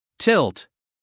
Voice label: "tilt", us male; everyone-can-use-english